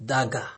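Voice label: "dagger", Nigerian Pidgin, male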